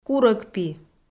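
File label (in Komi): "курӧгпи", Komi-Zyrian, female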